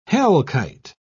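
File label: "hellkite", British English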